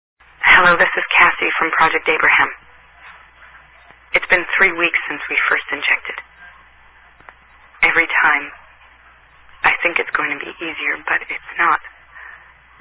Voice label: Cell Call